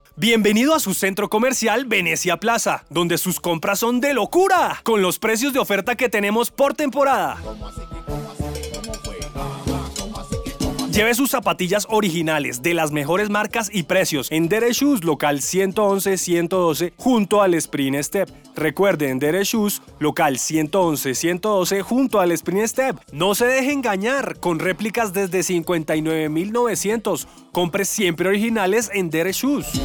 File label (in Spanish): Perifoneo; Deere Shoes